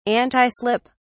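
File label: "antislip", British English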